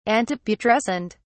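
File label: "antiputrescent", British English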